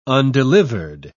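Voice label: "undelivered", British English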